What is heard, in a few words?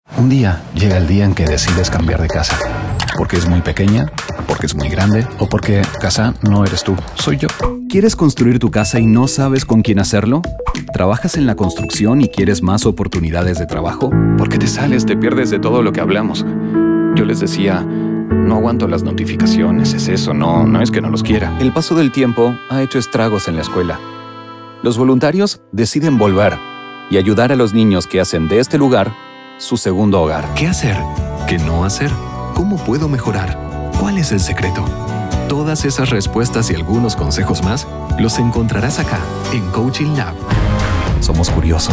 Voice Actor Neutral Spanish LATAM narration